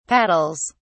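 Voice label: "paddles", British English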